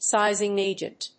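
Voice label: "sizing agent", English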